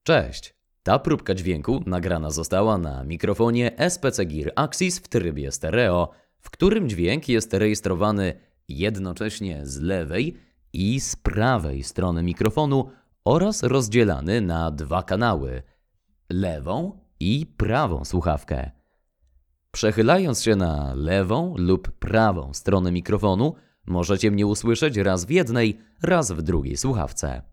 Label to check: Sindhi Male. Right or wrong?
Wrong